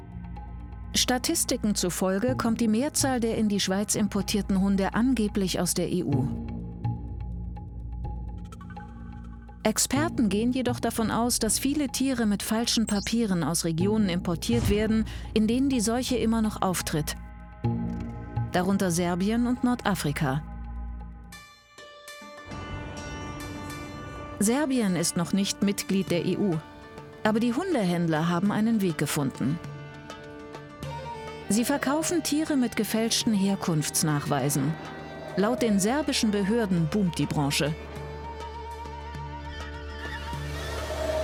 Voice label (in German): Welpen Doku Kommentar; Wolfien; LOFT VOICES